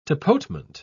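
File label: "tapotement", British English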